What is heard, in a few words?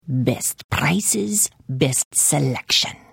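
Service, Khajiit female; The Elder Scrolls III - Morrowind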